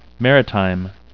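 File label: "maritime", English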